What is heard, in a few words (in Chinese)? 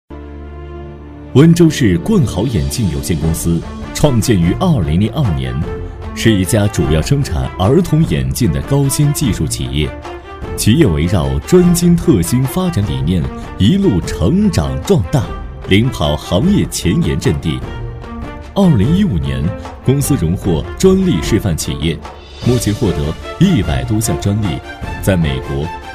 男 公司宣传片 大气稳重; 男D5-厚重有力; 大气浑厚